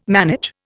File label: "manage", Indian